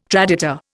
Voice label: "traditor", Indian